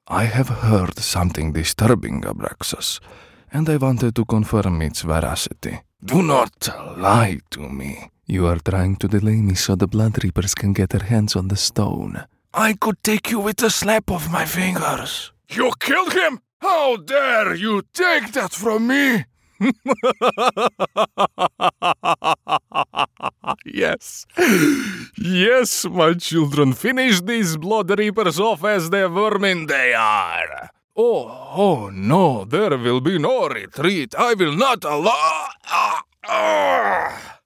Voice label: croatian voiceover character